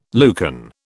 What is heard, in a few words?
"Lucan", British